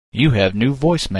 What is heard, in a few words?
Voice Mail; Ringtones